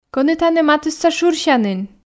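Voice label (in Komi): Komi, кӧні тані матысса шырсянін, female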